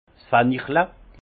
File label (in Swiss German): "fenchel", Alsace; vin; fenouil